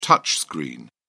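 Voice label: "touch screen", English, British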